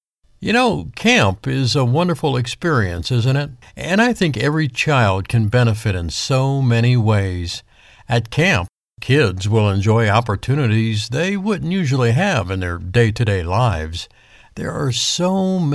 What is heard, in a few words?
Natural Conversation